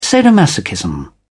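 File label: "sadomasochism", British English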